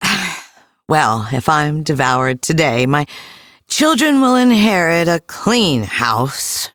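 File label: Ambient Female Sweeper, Dialog; Duviri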